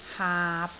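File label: "haap6", Cantonese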